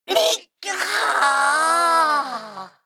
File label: Male Mech Death